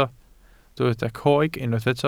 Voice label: "coeg", Welsh

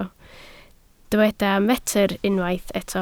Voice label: "metr", Welsh

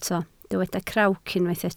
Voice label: "crawc", Welsh

